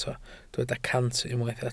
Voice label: "cant", Welsh